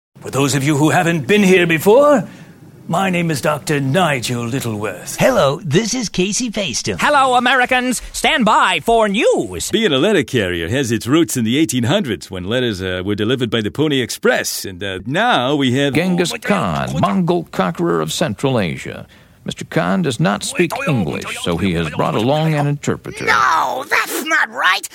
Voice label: Voice Character